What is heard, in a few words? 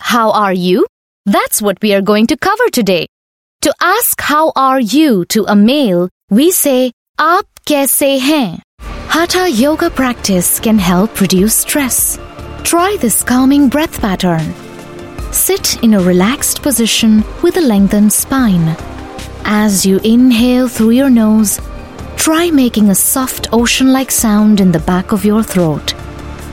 Hindi Indian English Voice Reel, Female